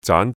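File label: "zaan6" Cantonese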